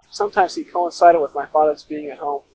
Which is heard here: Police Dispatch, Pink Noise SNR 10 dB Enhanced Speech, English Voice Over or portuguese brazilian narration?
Pink Noise SNR 10 dB Enhanced Speech